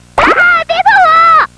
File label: grandma, Rolled up - Grandma; katamari